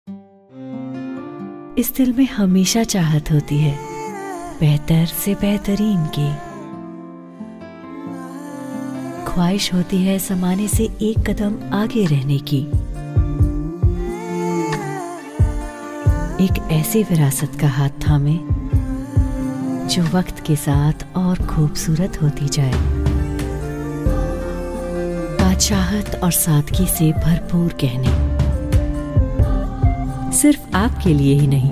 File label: TVC Hindi